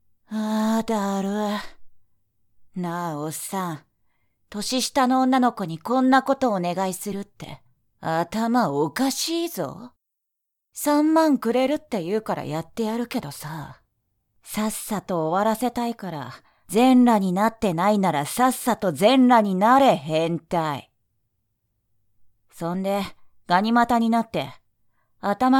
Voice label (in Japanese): 年下の女の子に玉責め指示される